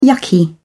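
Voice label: "yucky", British English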